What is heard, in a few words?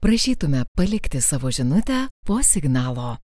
lithuanian female; dictor; dictor-sounds